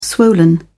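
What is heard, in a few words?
"swollen", British English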